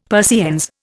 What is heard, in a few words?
"Persiennes", Indian; Dictionary